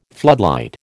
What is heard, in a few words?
"floodlight", American